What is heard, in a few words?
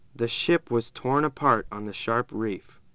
Clean, speech